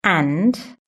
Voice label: "and", british english

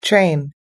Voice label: "train", US English

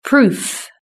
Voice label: "proof", British English

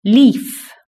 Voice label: "leaf", british english

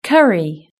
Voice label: "curry", british english

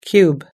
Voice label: "cube", us english

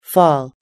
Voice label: "fall", us english

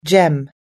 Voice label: "gem", US English

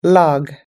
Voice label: "log", US English

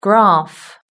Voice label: "graph", british english

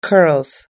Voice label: "curls", US English

